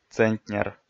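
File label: "центнер", Komi, male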